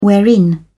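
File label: "wherein", British English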